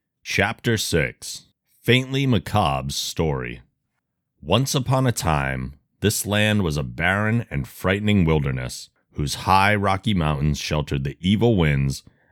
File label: narration; The Phantom Tollbooth